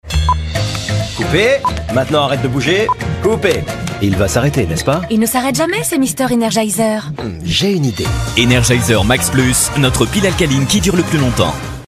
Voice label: promo muscle; Energizer

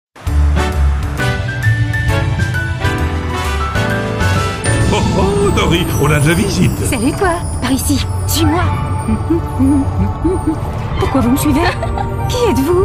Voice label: souriant complice; Toyota

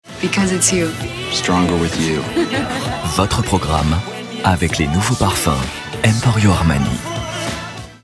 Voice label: elegant, comedien voix off parrainage; EMPORIO ARMANI élégant

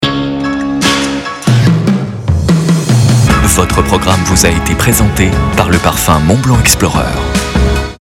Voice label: elegant, comedien voix off parrainage; MONTBLANC sobre, élégant

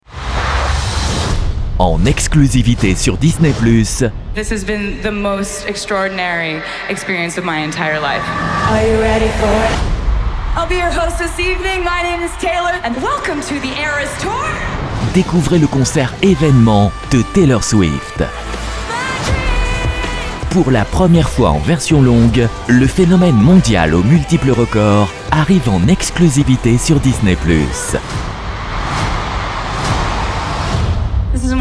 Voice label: promo enthousiaste, voix off disque

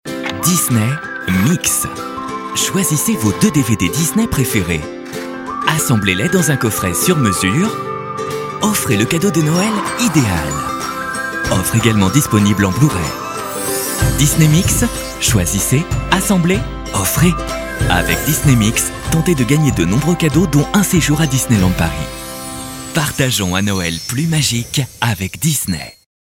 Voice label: bienveillant complice, voix off jeunesse; bienveillant, chaleureux; DISNEY MIX

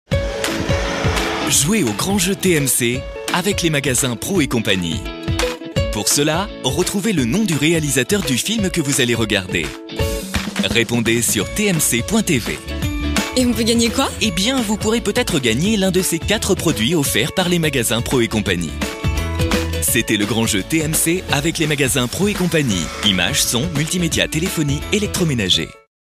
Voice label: souriant, voix off parrainage; ProCie

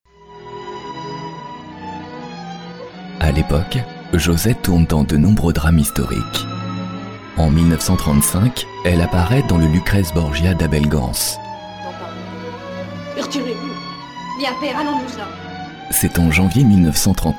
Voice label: Voix off documentaire; ACTRICES

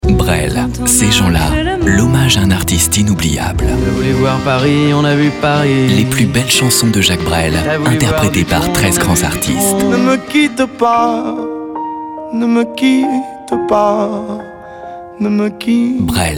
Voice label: doux intime, voix off disque, homme

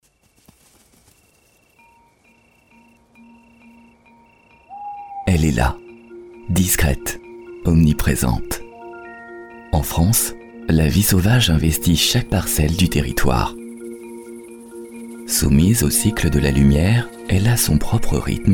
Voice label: voix off documentaire, homme; TERROIR SAUVAGE

